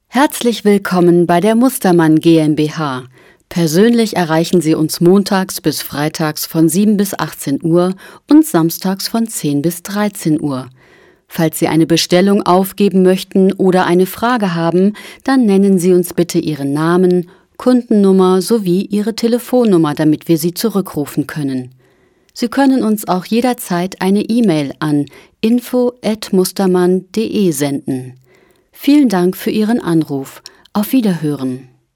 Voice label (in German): Anrufbeantworter